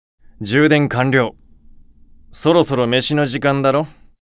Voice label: AP Notification Voice; Beelzebub